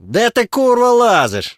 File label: finding, combat ukr